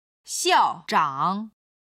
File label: "xiao4zhang3", Chinese